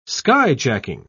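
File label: "skyjacking", British English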